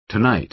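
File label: "tonight", American English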